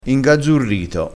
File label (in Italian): "ingazzurito", vocabolario dialettale